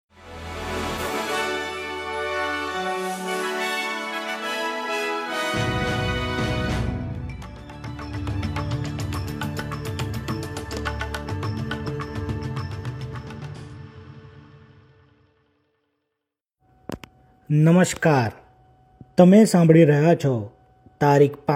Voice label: gujarati newsflash; SBS Gujarati Australian update: 5 December 2024